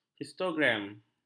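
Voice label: "histogram", Malay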